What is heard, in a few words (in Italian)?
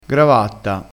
"gravatta", crespinese, vocabolario dialettale